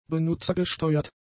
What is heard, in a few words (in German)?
"benutzergesteuert", German; adjectives